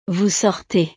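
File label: "vous sortez", French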